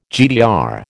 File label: "GDR", American